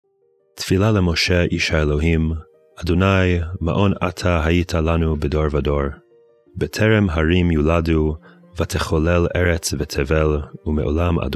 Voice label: poetry and prayer psalm hebrew; Meir Soloveichik